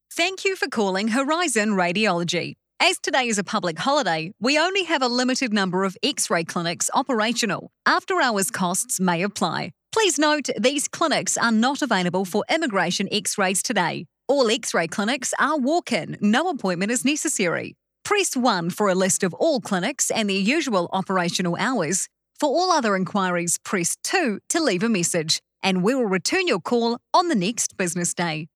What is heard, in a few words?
Voicemail